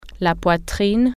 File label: chest, french